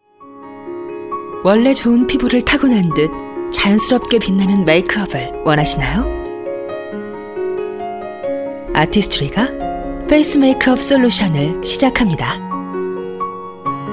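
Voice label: Korean female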